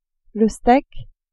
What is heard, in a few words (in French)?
"steak", french